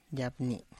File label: "yabni", tree of dew plan